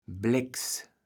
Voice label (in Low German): "Bleks", kaevels platt